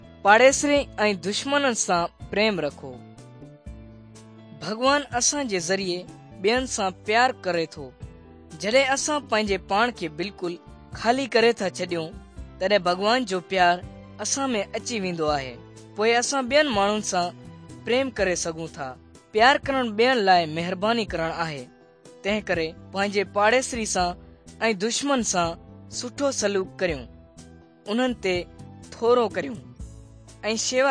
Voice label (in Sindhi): good news sindhi dukslinu, drama